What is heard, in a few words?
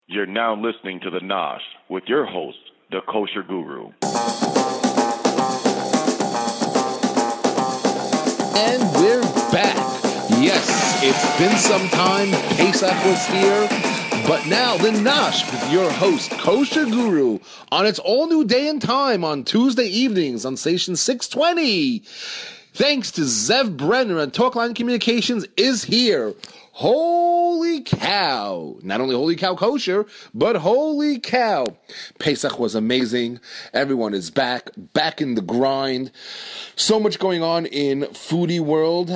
Voice over